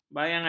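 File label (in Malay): "bayangan", Malay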